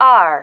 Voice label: "are", female

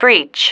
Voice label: "breach", female

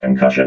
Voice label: "concussion", robot vox

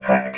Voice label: "pack", robot

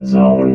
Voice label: "zone", robot

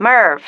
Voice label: "mirv", female

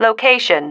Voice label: "location", female

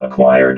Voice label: "acquired", robot vox

